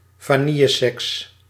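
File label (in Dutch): "vanilleseks", Dutch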